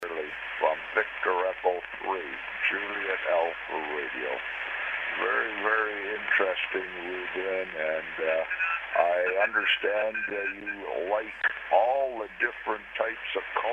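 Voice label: QSO between Canada and Costa Rica, realistic shortwave conditions; HamSphere 1.0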